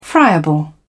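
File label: "friable", British English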